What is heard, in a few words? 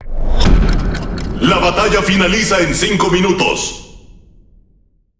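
Spanish Speech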